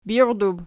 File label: "birdub", Archi